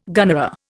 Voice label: "gunnera", Indian

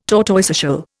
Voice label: "tortoiseshell", Indian

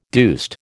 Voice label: "deuced", American

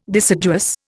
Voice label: "deciduous", Indian

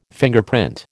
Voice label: "Fingerprint", American

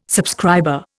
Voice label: "subscriber", Indian

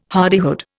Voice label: "hardihood", Indian

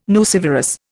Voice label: "nucivorous", Indian

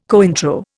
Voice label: "Cointreau", Indian

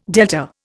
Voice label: "delta", Indian

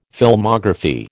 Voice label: "Filmography", American

